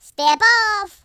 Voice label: "go away", Gangsta; speech

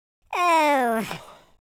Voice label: sad, speech, Patronising

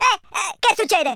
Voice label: what the, speech Italian

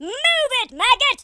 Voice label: runaway, speech, Drill Sergeant

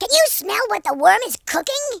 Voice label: just you wait, American Wrestler

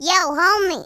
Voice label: "hello", Gangsta; speech

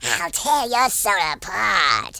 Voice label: you'll regret that, speech, Horror

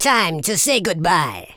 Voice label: "watch this", Super Villain speech

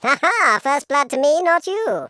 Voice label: first blood, Patronising

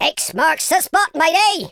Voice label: drop, Pirate

